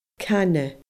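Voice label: "cana", Scottish Gaelic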